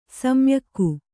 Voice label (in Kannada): "ಶಮ್ಯಾಕ", kannada